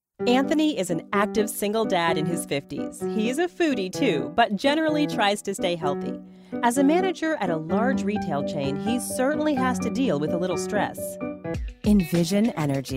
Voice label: Narration

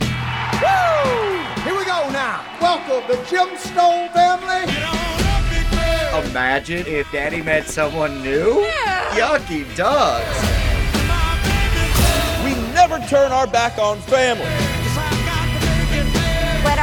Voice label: TV Spots; The Righteous Gemstones

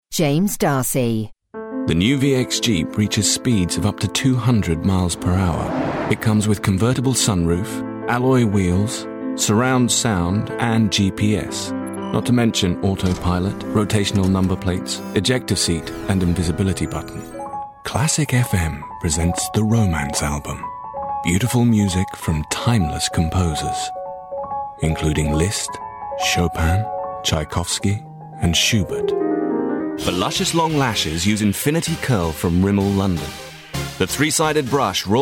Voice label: commercial

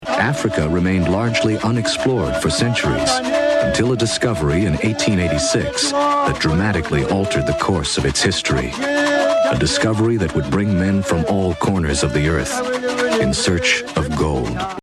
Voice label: Narration

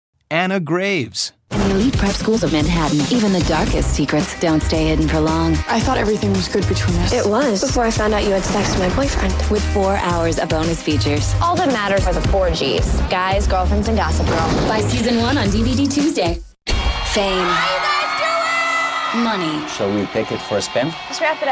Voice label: promos

